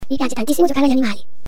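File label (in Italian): Più veloce della luce (effetto time-stretch), Frase modificata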